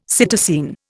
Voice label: "psittacine", Indian; Dictionary

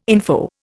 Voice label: "info", Indian

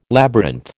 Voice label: "labyrinth", American

